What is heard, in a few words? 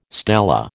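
"stela", American English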